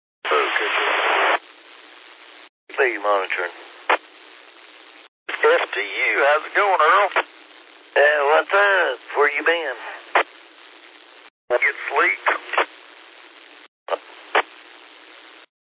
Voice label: qso rec simplex logic